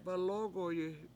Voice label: "balOOgoyi", Washo; he tying it